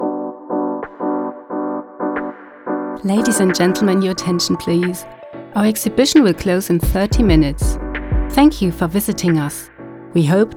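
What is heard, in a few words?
Durchsage English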